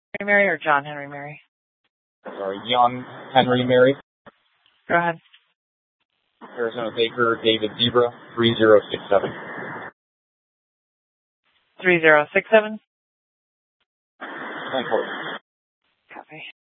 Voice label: Police Department Dispatch; TOPAZ Regional Wireless Cooperative (TRWC) - Mesa Simulcast; 3 Red Mountain Dispatch

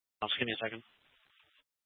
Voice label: Mesa PD Dispatch; Fiesta

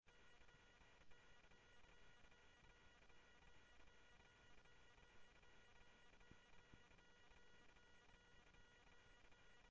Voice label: Phoenix PD, scanner audio

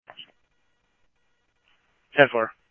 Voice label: PD Police, scanner audio; ThompsonPeakHigh

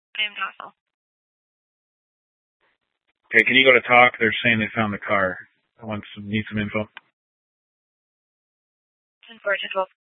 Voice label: PD Dispatch

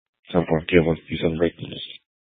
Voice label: Sheriffs Office, scanner

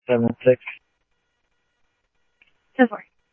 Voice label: Tempe Police, scanner audio; TEMPE PD A04

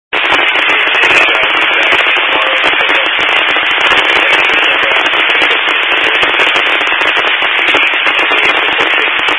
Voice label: Highway Patrol, scanner

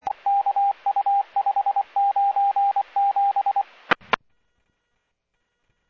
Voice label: Medevac, scanner; NativeAirAmb

